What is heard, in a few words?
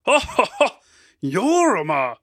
Impressed; Dung Def